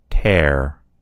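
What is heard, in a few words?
"tear", American English; gcompris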